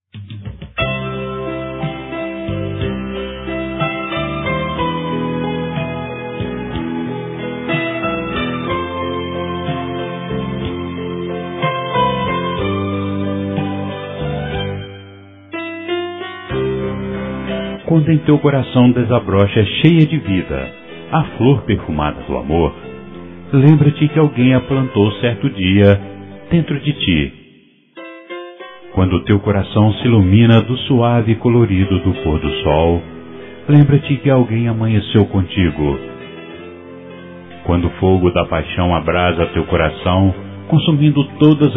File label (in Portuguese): Tele Mensagem Eu Amo Voce (Voz Masculina); COMEMORATIVAS